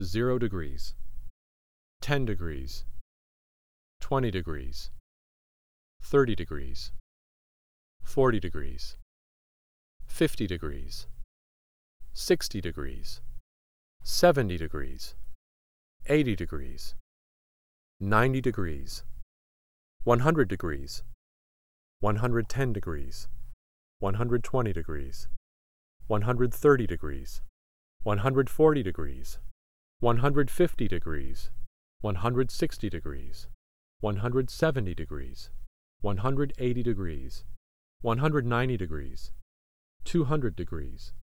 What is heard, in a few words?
Binaural, spoken